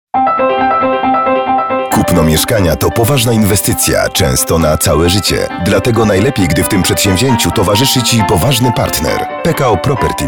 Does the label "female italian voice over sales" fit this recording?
No